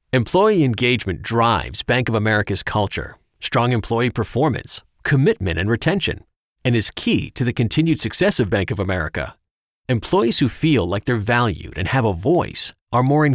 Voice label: corporate e-learning